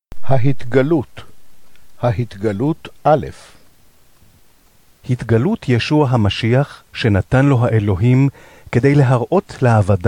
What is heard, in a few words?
hebrew; Audio Bible